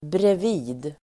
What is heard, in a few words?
"bredvid", Swedish